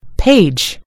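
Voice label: "page", British English; gcompris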